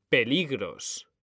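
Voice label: "peligros", Spanish; mekanta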